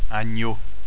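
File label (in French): "agneau", French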